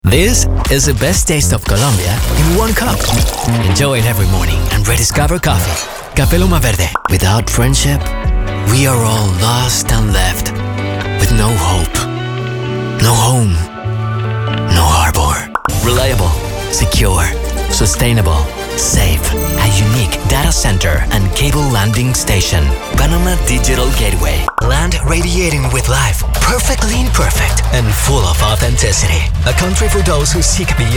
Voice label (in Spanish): ingles